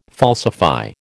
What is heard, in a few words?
"falsify", American